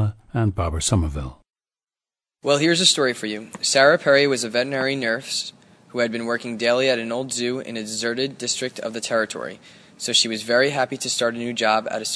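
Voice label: new york, International Dialects of English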